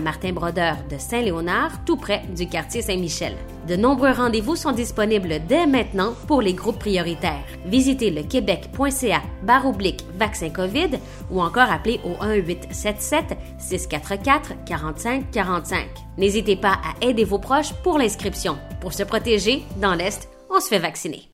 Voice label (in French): Pub Radio Vaccination; Publicité radio; Trousse - Vaccination en entreprise; CIUSSS de l'Est-de-l'Île-de-Montréal; Martin Brodeur